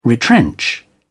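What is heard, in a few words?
"retrench", British English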